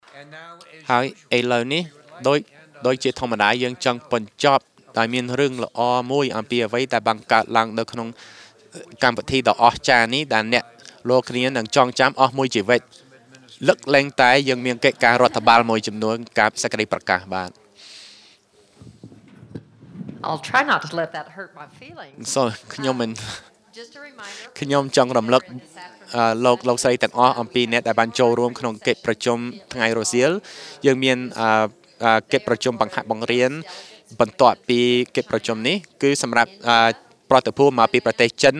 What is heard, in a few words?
Cambodian Session, khmer; iclrs symposium 2009